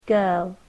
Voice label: "girl", english